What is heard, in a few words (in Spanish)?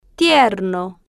"tier-no", Spanish